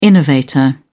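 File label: "innovator", British English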